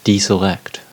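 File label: "deselect", American English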